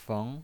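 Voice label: "feng2", Mandarin pinyin voice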